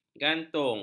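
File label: "gantung", Malay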